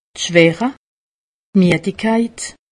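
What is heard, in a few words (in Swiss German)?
"schwache", Haut Rhin; medecine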